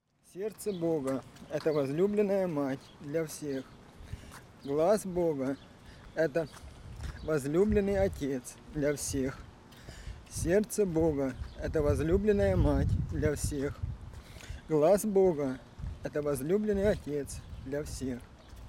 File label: prayer russian